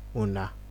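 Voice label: "una", Nigerian Pidgin, male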